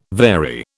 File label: "vary", British